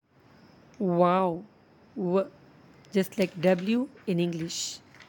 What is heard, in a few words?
"waaw", Arabic; و; Like W